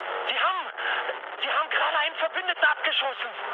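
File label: German player killed ally mood high, speech; War Thunder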